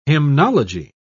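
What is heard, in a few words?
"hymnology", British English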